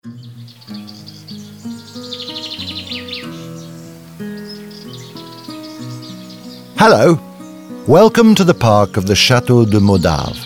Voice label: audioguide en enfant; modave